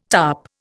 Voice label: "tarp", Indian